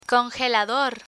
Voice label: "congelador", Spanish; learn spanish

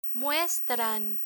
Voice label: "muestran" Spanish; verb mostrar conjugation; learn spanish

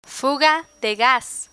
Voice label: "fuga de gas", Spanish

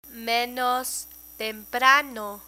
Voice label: "menos temprano", Spanish

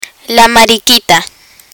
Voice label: "mariquita", Spanish; spanish for children; learn spanish

